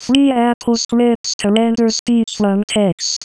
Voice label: rendered Speech